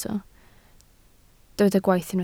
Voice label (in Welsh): "gwaith", Welsh